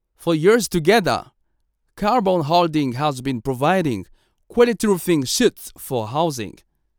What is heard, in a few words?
african